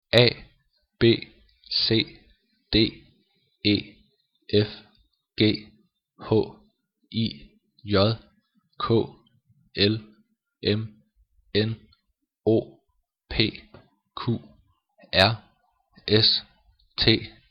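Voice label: alphabet, Danish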